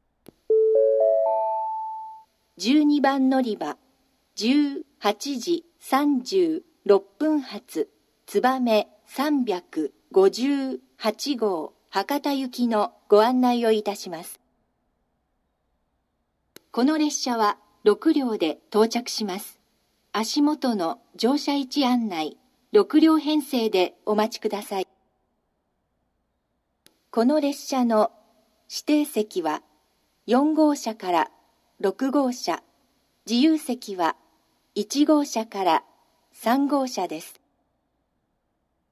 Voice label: 12番のりば案内放送, 新幹線ホーム; 新鳥栖; つばめ358号 博多行き; 博多で「のぞみ66号 東京行き」に接続

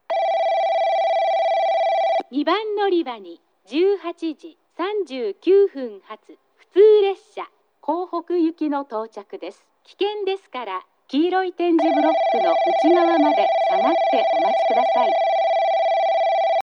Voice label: 2番のりば接近放送; 普通 江北行き; takeo onsen

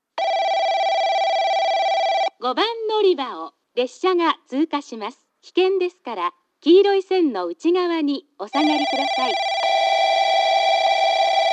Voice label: 陣原駅 通過放送

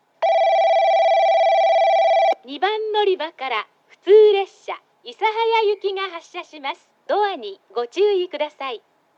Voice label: 2番のりば発車放送; ハウステンボス; 2番のりば発車放送（普通 諫早行き）

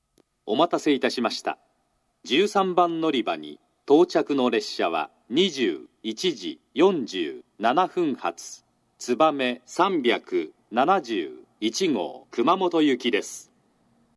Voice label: 13番のりば接近放送, 新幹線ホーム; 筑後船小屋; 13番のりば接近放送（つばめ371号 熊本行き）; ・標準の放送内容です。

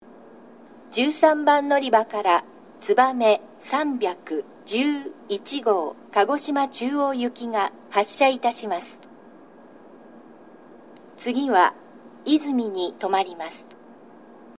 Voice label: 13番のりば発車放送; つばめ311号 鹿児島中央行き; shin minamata

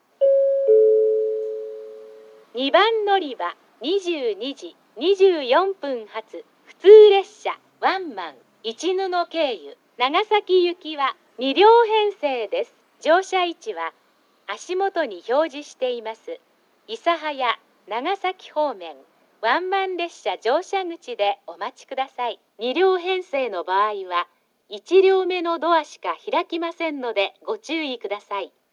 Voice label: 2番のりば案内放送; ハウステンボス; 2番のりば案内放送（普通・市布経由 長崎行き）; ※無人時間帯の列車

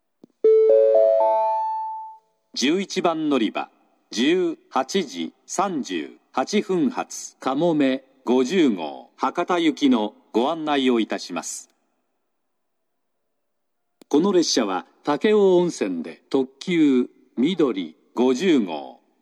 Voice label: 嬉野温泉, 案内放送; 11番のりば案内放送（かもめ50号 博多行き）※武雄温泉でみどり50号に接続

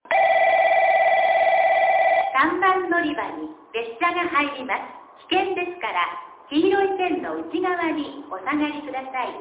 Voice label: 3番のりば接近放送 女声 放送はJACROS簡易型です。放送は「列車が入ります」で固定されていました。また実際の放送は2回流れます。

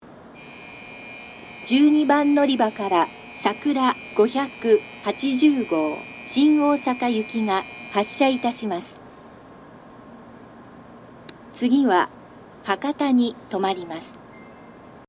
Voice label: 12番のりば発車放送, 新幹線ホーム; 新鳥栖; さくら580号 新大阪行き

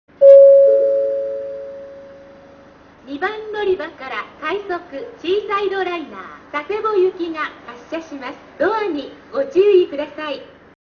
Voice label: 2番のりば発車放送; ハウステンボス; 快速シーサイドライナー 佐世保行き

